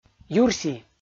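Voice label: "юрси", Komi, male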